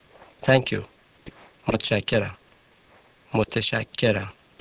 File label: Persian Thanks